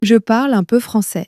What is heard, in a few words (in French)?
"je parle un peu français", French; flashcards